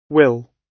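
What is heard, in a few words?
"will", British English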